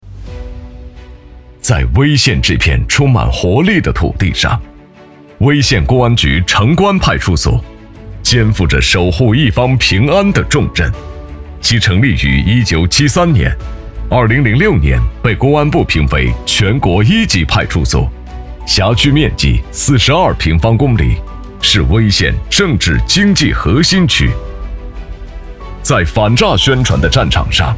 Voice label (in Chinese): 男 专题; 威县城关派出所